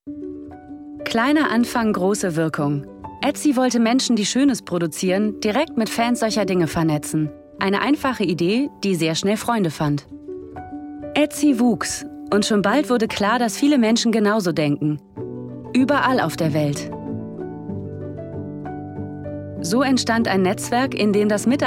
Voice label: VO with Music; Etsy